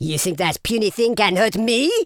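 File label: "take cover", male villain voice; speech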